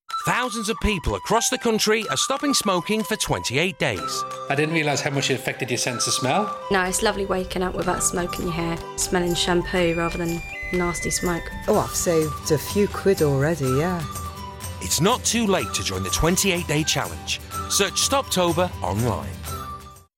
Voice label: radio ad